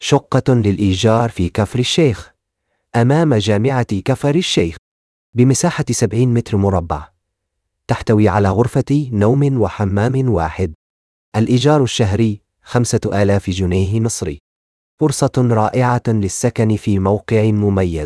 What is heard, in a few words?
narration audio